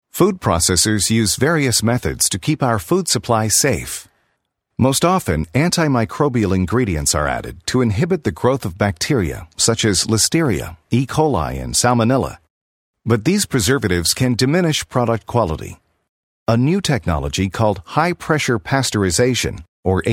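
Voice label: American elearning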